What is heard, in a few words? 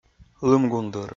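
"лым гундыр", Komi, male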